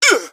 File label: pain, npcs gasmask; scmod